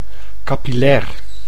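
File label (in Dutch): "capillair", Dutch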